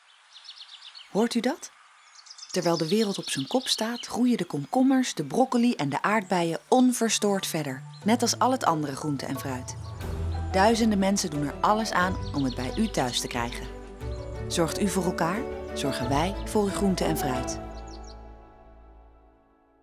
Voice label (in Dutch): Radiospot